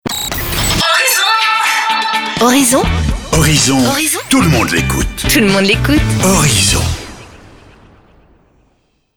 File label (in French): JINGLES PARLES; HORIZON